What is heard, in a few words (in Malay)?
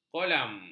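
"kolam", Malay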